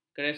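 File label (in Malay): "keresot", Malay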